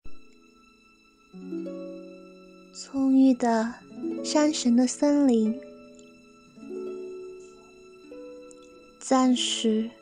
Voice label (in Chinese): 广播剧尾声; 萤火之森